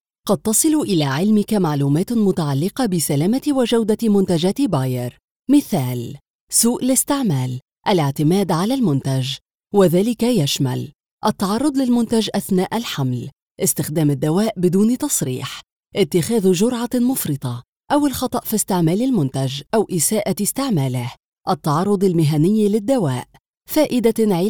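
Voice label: Arabic Female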